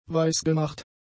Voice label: "weisgemacht", German; adjectives